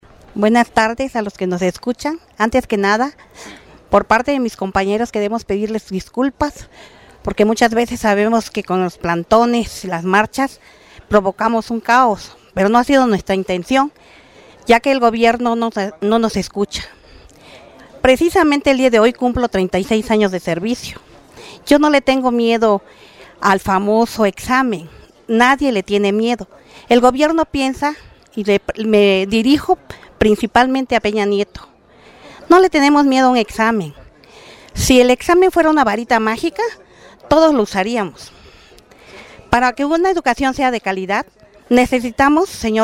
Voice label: maestra mensaje a EPN; maestros2013

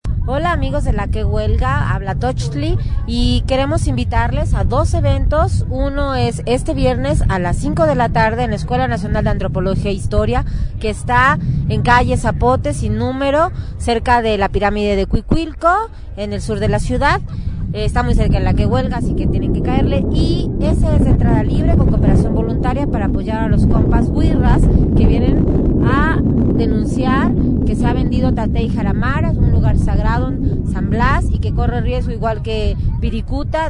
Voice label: invitación a conciertos; elcamino

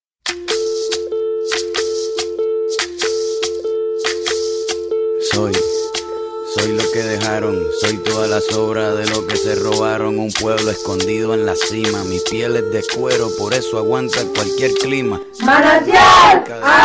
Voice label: promo marchas; planton aztecas; manantial 8dic

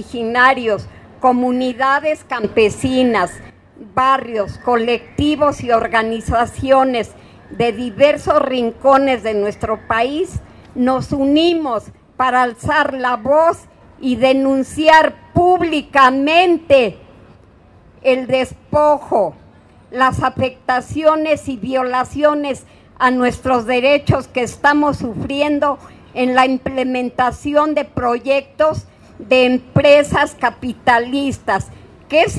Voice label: Pronunciamiento Cierre Campana Madre Tierra; campania madre tierra

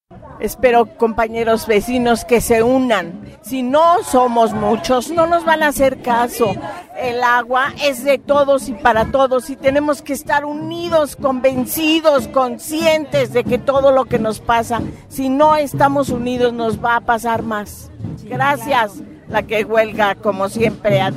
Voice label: Entrevista Senora; planton aztecas; Pedregales

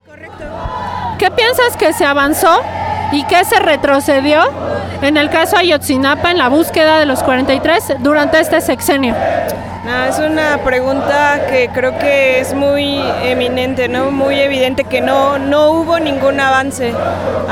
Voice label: Testimonio Chica; Ayotzinapa; Mitin Ayotzi

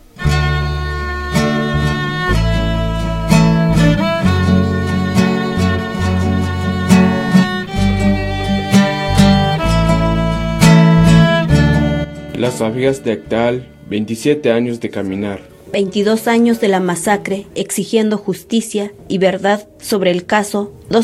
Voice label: SPOTS; acteal; 22 DE CAMINAR Y 27 ANOS EXIGIENDO JUSTICIA

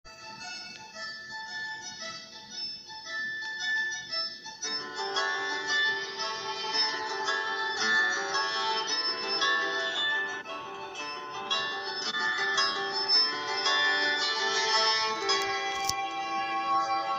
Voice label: promo; pedregales

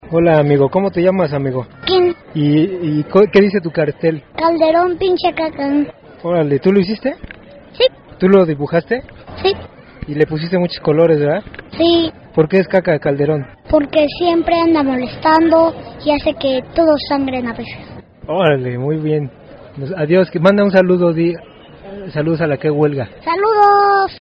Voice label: niño; torerita; eje central; pinacate

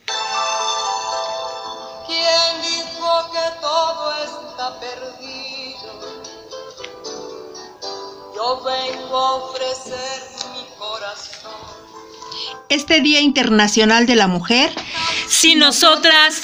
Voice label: promo; pedregales; 8 marzo

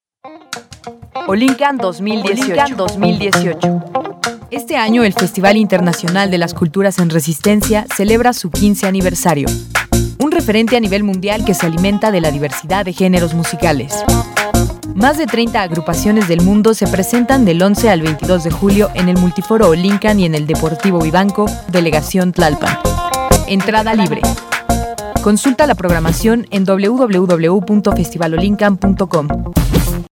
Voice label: Promo; Ollin Kan 2018

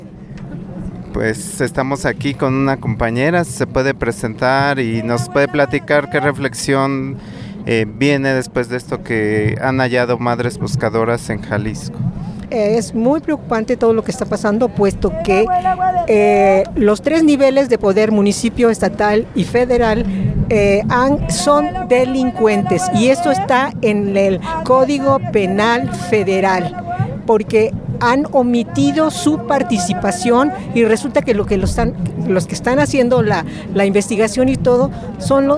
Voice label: Testimonio Companera; Luto Nacional Teuchitlan

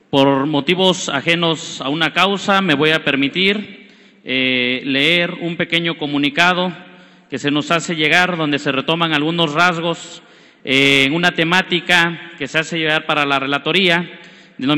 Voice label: Foro Educativo Pequeno pronunciamiento; cnte; Bloque5

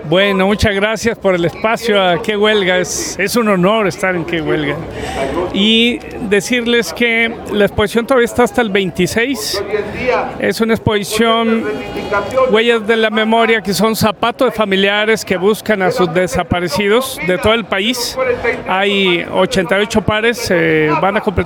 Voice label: entrevista marcha; planton magisterio; entrevistas 2016-06-17

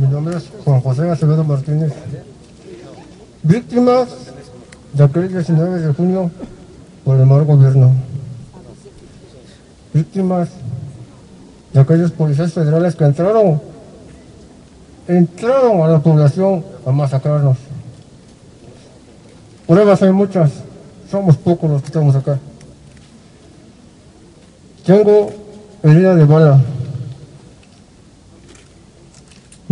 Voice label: Testimonio; cnte; nochixtlan

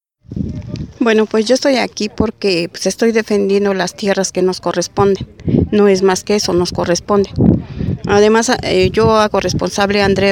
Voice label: testimonio; atenco

